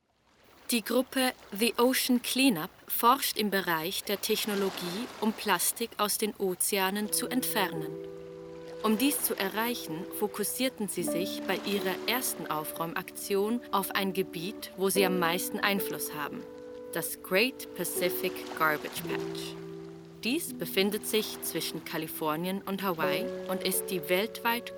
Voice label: female german and swiss german german documentary narration